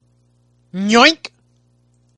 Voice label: guy saying yoink